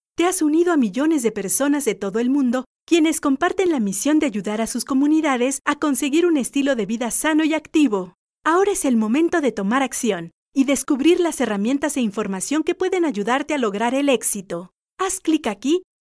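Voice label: Explainer Spanish Female, Upbeat, Energetic, Emotive; Whiteboard/Explainer, Spanish (Latin and Castillian)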